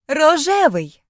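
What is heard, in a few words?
pink, Serbian; gcompris-data; colors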